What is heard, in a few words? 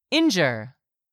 "INJURE", english; 3000 words